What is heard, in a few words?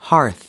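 "hearth", American English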